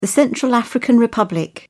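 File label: "central african republic", British English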